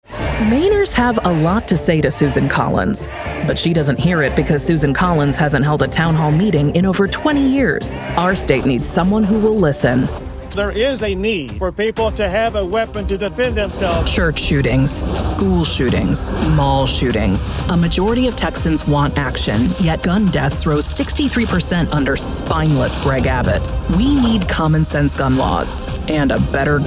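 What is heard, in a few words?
Democrat, Female; Political DEMOCRAT Voices English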